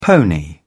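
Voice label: "pony", British English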